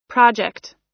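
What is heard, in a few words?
"project", US English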